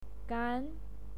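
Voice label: "gan", Mandarin Chinese, second tone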